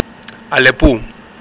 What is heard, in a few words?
"alepou", Greek